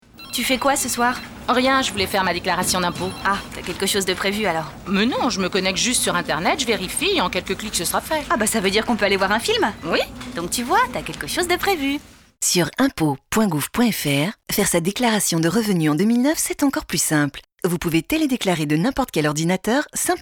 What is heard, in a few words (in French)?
télédéclaration voix télédéclaratrice